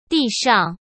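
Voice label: "地上", Mandarin Chinese; chinese-flashcards; hsk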